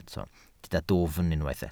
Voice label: "dwfn", Welsh

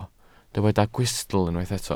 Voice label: "gwystl", Welsh

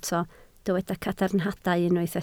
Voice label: "cadarnhadau", Welsh

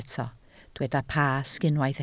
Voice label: "Pasg", Welsh

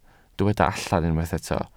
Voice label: "allan", Welsh

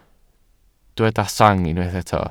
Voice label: "sang", Welsh

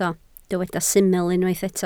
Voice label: "syml", Welsh

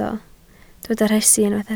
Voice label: "rhesi", Welsh

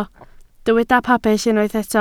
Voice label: "pabell", Welsh

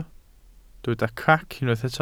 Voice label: "crac", welsh

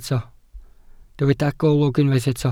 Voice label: "golwg", Welsh

